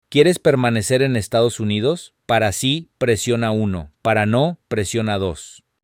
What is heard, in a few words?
Spanish, hotline